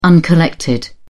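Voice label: "uncollected", British English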